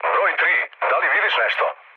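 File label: Serbian chat; War Thunder; speech srb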